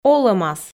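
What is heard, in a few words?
"олӧмас", Komi, female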